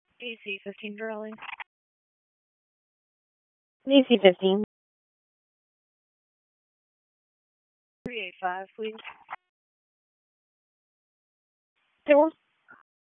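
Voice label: Police Southwest District Dispatch, p25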